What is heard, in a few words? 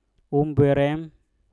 "umbwerem", Mpur; berpisah